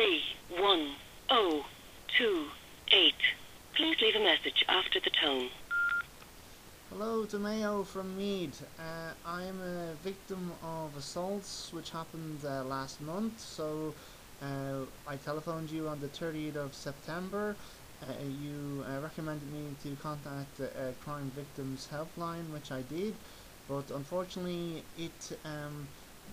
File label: voicemail; Federation for Victim Assistance